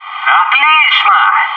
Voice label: "good shot commander", bot

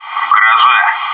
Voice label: "garage", bot; maxpack

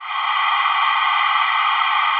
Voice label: pain, bot

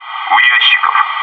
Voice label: "crates", bot

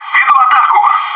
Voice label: "attacking", bot; maxpack

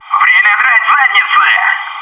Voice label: lead on commander, bot

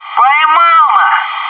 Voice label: "good shot", bot; maxpack

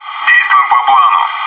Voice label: "back door", bot; maxpack

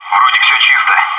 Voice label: "all quiet", bot

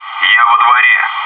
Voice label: "courtyard", bot

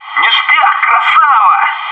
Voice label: good shot, bot

